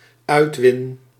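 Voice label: "uitwin", Dutch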